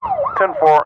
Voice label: emergency police radio male officer in car sirens "10 4"